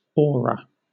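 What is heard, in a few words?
"aura", British English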